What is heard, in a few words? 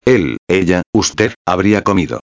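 "habria comido", Spanish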